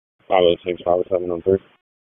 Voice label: Wake Forest Tac 3, P25 recordings